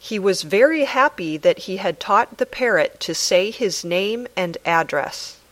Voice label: Dictation